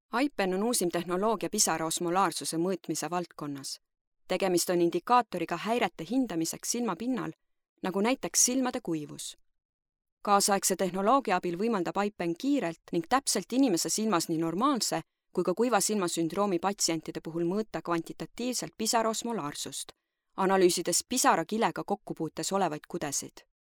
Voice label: female estonian voice over narrative

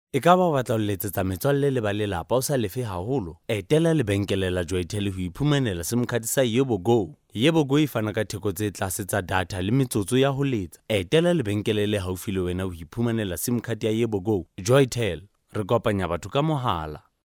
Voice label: male sesotho voice over talent sales

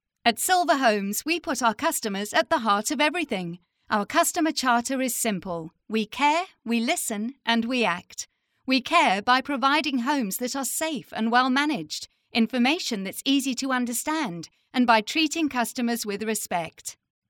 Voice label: female british voice over sales; english british

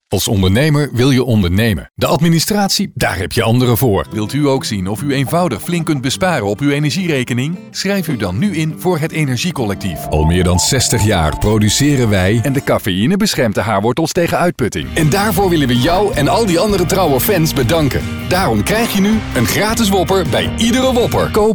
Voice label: male dutch voice over talent sales

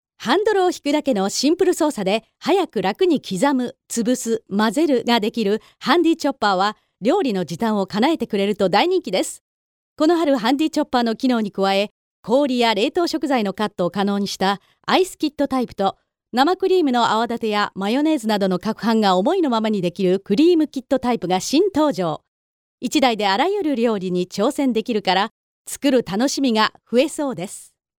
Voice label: female japanese voice over sales

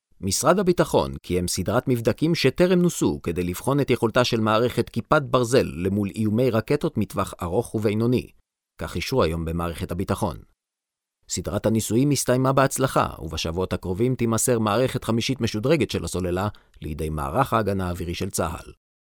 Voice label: male hebrew voice over upbeat

